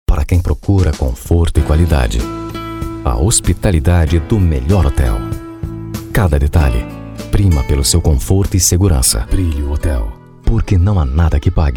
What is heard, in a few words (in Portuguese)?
locucao para video voz locutor